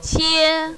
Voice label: "qie1", mandarin, female